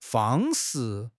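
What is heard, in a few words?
"fang1 shi4", Chinese; HSK4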